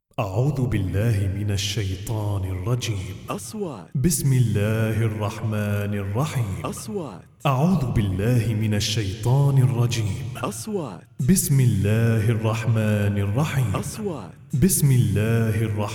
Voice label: EFFECTS BESM ELLAH WITH REVERB; sound effect